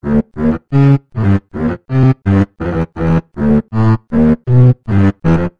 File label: Werebeaver Voice